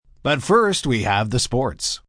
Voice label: First Sports M, Broadcast, announcer, breakaway, male; VoiceBox